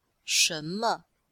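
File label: "shen2me5", Chinese, female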